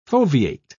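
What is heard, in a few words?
"foveate", English, British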